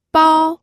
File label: "bao1", pinyin